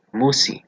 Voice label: "musi", Proto Germanic